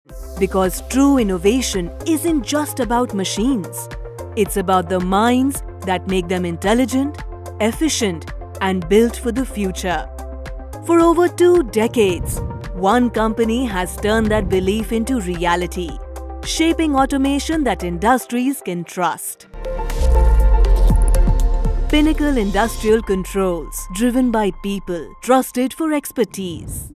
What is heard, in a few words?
Corporate Eng; Pinnacle